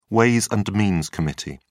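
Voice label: "ways and means committee", British English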